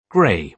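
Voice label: "gray", British English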